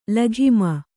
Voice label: "ಲಗಾಮು", Kannada